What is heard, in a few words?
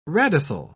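"radicel", British English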